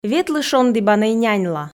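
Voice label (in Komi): ветлы шондібанӧй няньла, Komi, female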